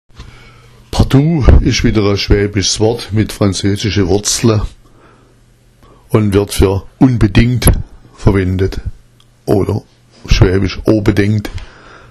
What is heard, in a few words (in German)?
pardu, schwaebisch; wird für „unbedingt“ verwendet